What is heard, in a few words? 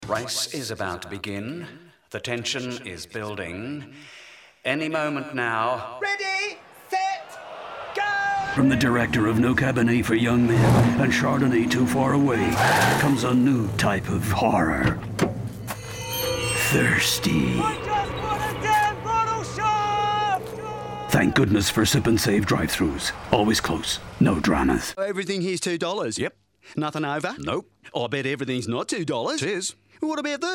Character Voice